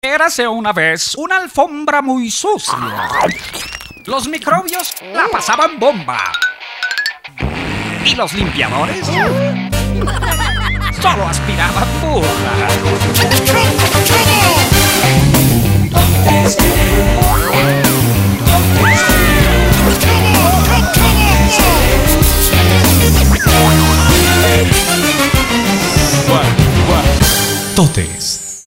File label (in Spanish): Caracterizaciones y Coros